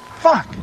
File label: "fuck", expletives